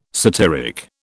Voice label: "satyric", British

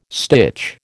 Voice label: "stitch", American

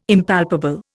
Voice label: "Impalpable", Indian

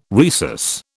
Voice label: "rhesus", British

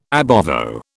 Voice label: "ab ovo", British

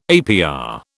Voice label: "APR", British

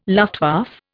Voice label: "Luftwaffe", Indian

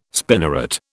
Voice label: "spinneret", British

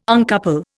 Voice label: "uncouple", Indian

